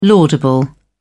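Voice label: "laudable", British English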